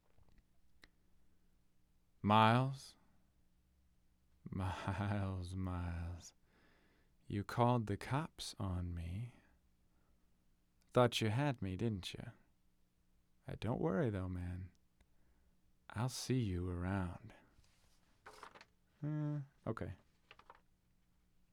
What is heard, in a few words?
Phone Call; Broken Road ADR